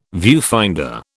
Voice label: "viewfinder", British